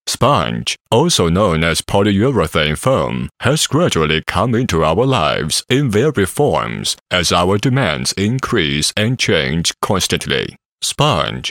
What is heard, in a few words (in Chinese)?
英男; 恒丰海绵